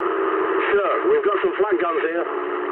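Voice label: English damaged aa mood low; War Thunder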